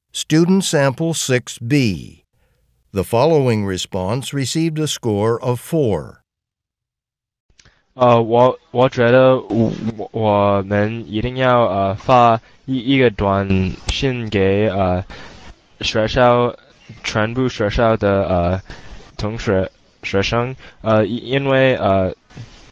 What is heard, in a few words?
chinese conversation